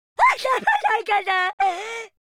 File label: lose loop, Italian